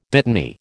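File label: "dittany", American English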